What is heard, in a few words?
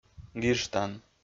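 "гижтан", Komi-Zyrian, male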